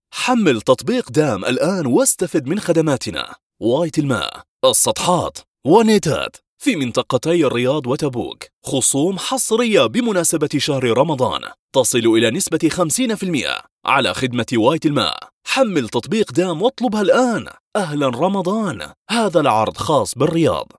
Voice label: arabic promos

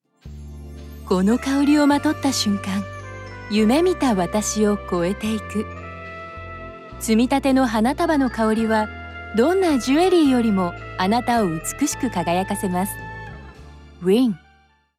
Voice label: japanese promos

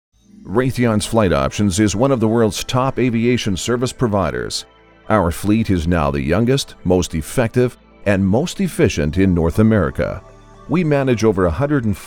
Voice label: english american documentaries; Profundo e autoritário com clareza elegante e calor

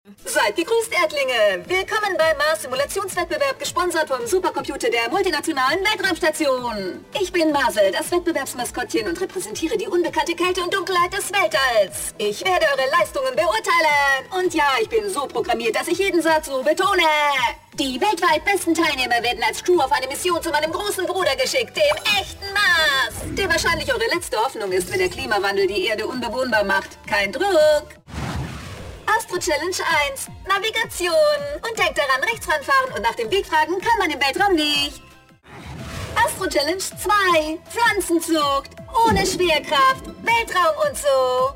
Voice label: german animation

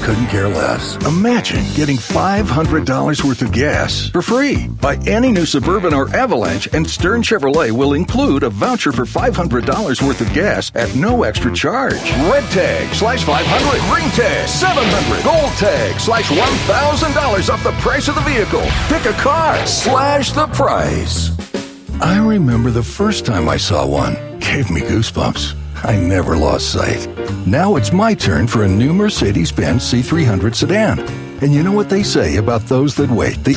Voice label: English American others

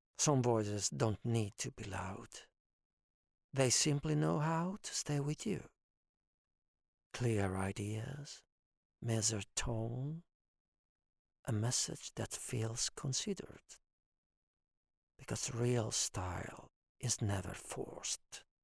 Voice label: english promos

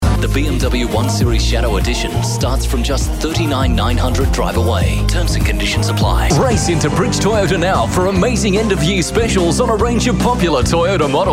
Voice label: english australian automotive

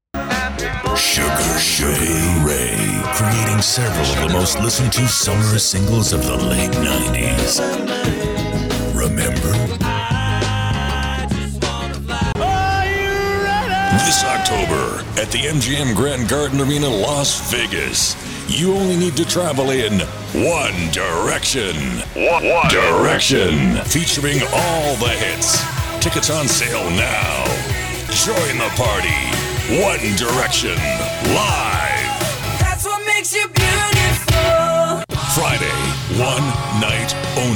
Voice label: english american others

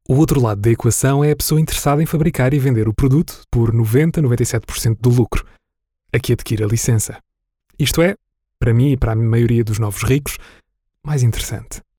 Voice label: portuguese audiobooks

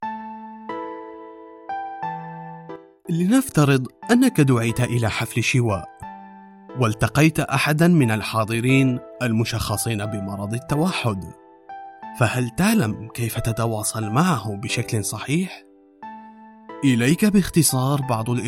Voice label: arabic tv shows

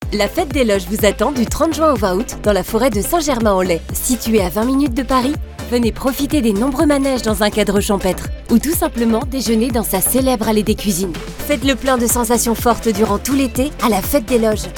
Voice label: french promos

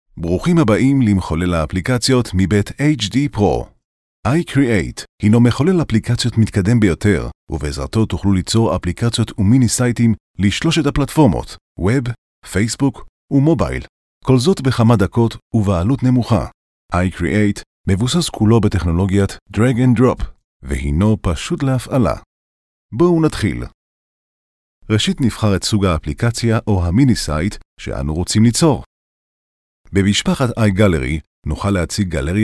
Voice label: hebrew e-learning

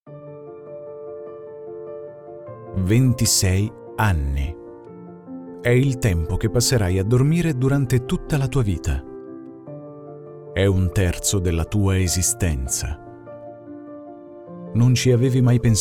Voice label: italian medical narration, voice actor

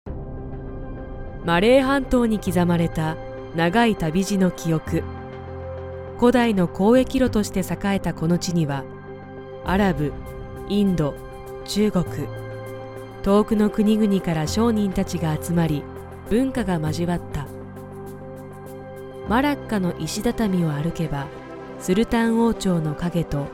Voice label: japanese documentaries

